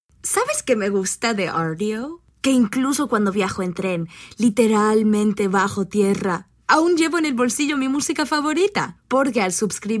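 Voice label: Spanish, voice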